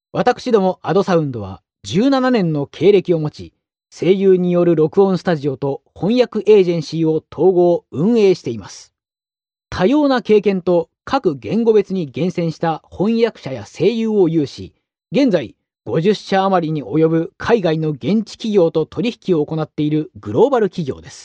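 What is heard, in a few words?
Japanese, male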